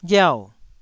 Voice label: "ghiau4", Taiwanese syllable

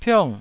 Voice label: "piong4", taiwanese

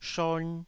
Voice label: "shon2", taiwanese Syllables

